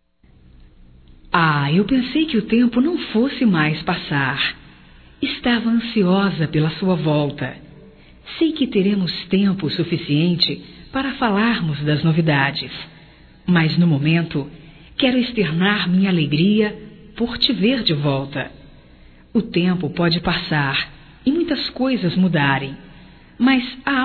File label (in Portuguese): Boas Vindas Geral Feminino, Telemensagens, Só Voz; Telemensagens 2017